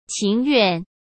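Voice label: "情愿", Mandarin Chinese; chinese flashcards; hsk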